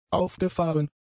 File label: "aufgefahren", German; adjectives